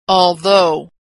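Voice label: "although", American English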